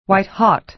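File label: "white-hot", American English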